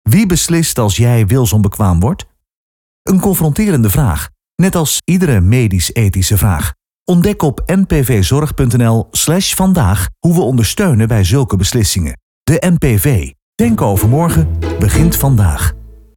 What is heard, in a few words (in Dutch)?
Commercial Radio; NPV Zorg Wilsonbekwaam 2025; Groot Nieuws Radio; Radiospotje Wilsonbekwaamheid; De campagne Denken over morgen begint vandaag